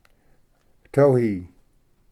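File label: "towhee", English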